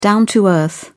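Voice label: "down to earth", British English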